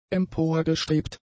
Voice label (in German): "emporgestrebt", german; adjectives